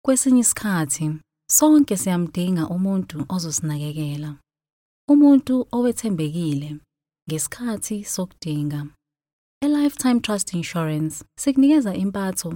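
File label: Xhosa, General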